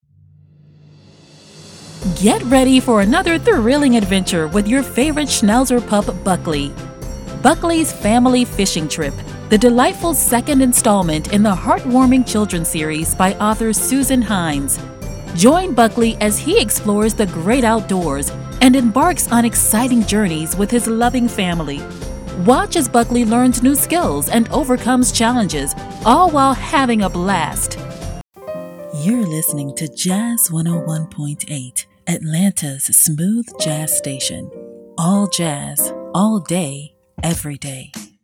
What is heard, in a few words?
promo